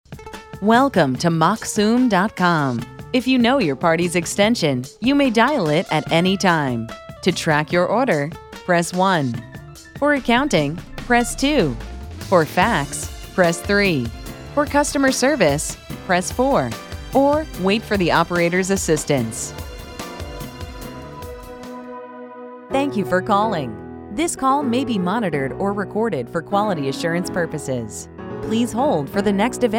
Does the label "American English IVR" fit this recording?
Yes